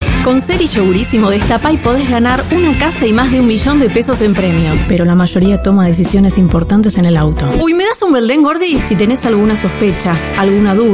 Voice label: Spanish European Voice; Female